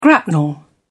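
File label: "grapnel", British English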